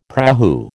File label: "prahu", American